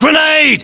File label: inform incoming grenade, battlechatter, uk; localized english